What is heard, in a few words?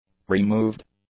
"removed", English; adjectives